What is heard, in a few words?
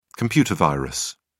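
"computer virus", English, British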